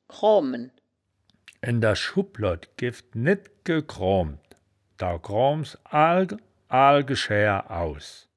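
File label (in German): "kroamen", wittlicher woerterbuch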